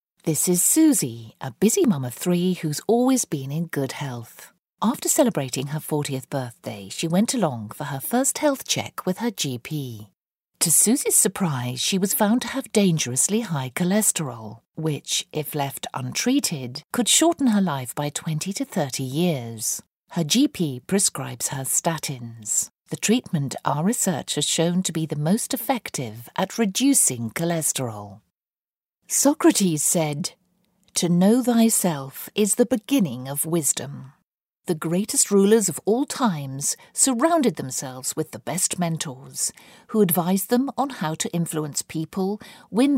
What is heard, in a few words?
English Uk